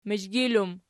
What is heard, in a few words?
"mizhgil", Archi